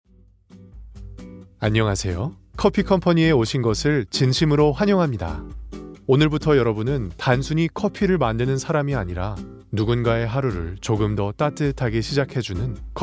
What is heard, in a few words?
korean e-learning